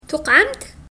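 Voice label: Kabyle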